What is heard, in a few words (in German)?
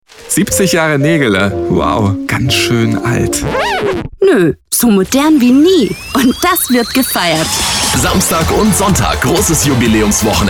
Spot Veranstaltung